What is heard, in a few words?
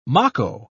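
"mako", British English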